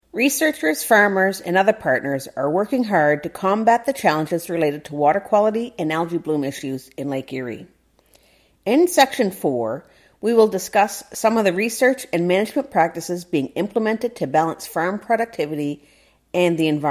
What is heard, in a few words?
narration intro